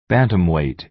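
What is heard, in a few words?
"bantamweight" American English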